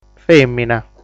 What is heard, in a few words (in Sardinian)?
"Fèmina", sardu